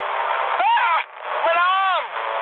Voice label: damaged pilot, mood med, speech ge; War Thunder